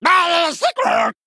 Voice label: Male Murloc Attack

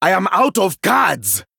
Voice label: Male Troll No Cards

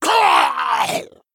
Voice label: Male Murloc Death

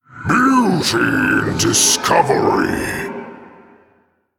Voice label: Male Elemental

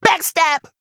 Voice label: Male Kobold Attack

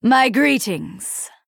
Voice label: Female Night Elf Greetings

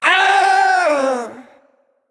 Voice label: Male Naga Death

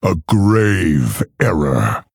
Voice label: Male Orc Oops; Hero

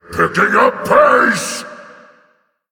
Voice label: Male Elemental Play

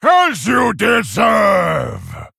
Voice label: Male Fel Orc Attack

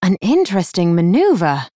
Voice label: Female Night Elf After Freezing

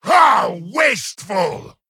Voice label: Male Satyr Attack

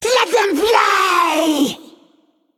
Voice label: Female Naga Attack

